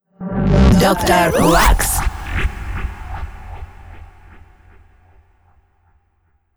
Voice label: russian automotive

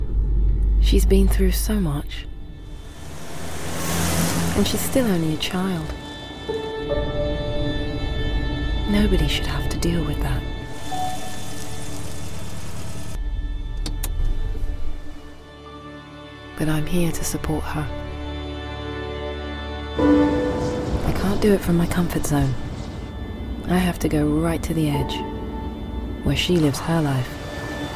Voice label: english british

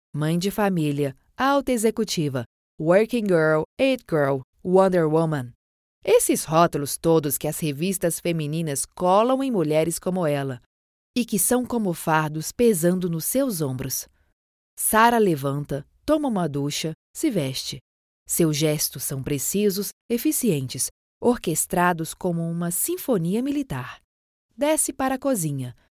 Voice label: portuguese brazilian narration

